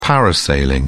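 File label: "parasailing", British English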